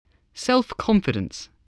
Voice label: "self-confidence", english spelling